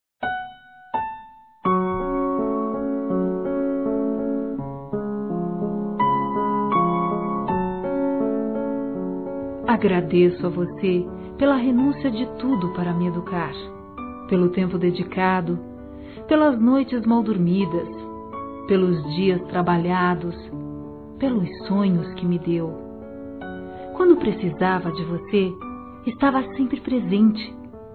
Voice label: HOMENAGEM A MÃE F; Telemensagens 2017

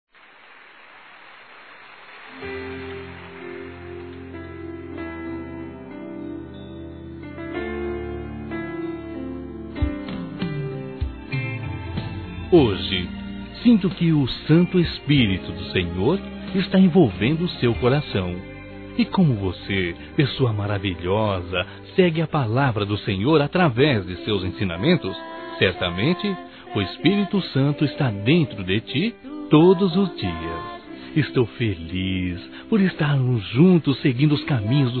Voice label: Irmã em Cristo Masculino; Dia das Mães; Telemensagens 2017

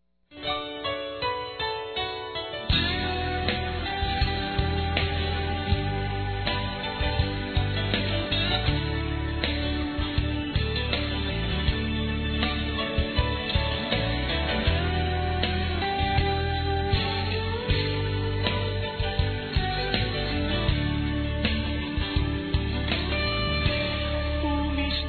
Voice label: NEUTRO F, Telemensagens Aniversários; ANIV. Evangelico; Fem

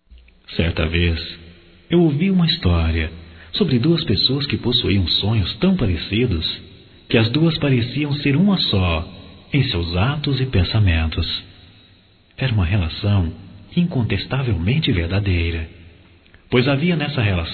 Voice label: Saudade da Familia Masculino, Só Voz; Telemensagens; Telemensagens 2017

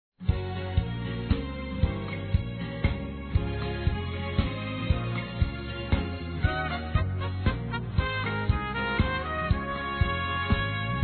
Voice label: Nosso Criador Feminino; Telemensagens; Telemensagens 2017

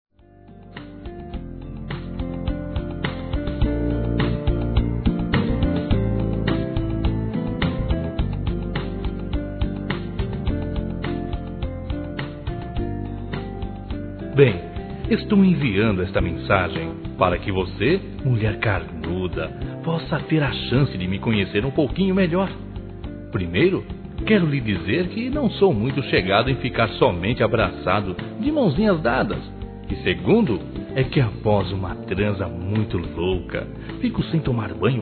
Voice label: NOVO ROMANCE, Sensuais, masc; Telemensagens

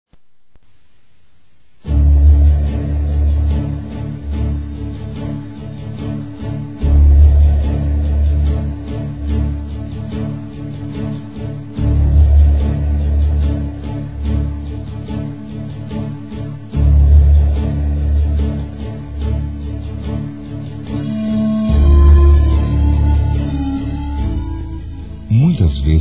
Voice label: Parabéns Pelo Sucesso, masc; Telemensagens; Parabenização

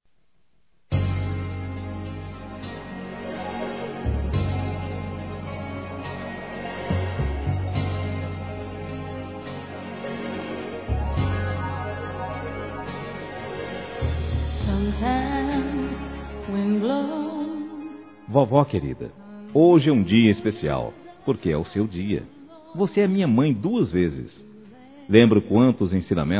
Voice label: Aniversário Avó Masculino

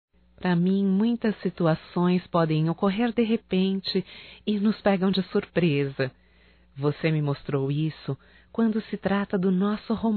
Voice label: ROMANTICA(F), Românticas, fem

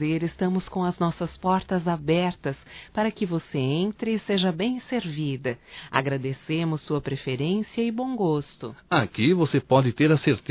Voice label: CLIENTE ADVOGADA DUAS VOZES, Telemensagens; Profissionais; fem; Telemensagens 2017

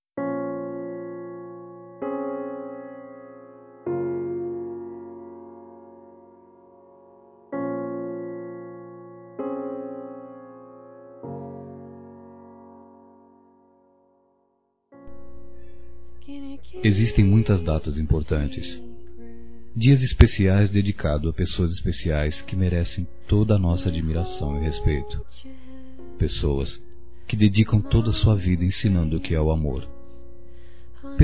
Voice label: Dia das Mães Geral M, Telemensagens; Masc; Telemensagens 2017

